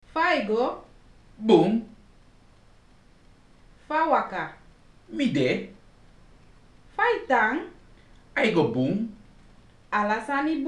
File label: greetings, Sranan; PeaceCorps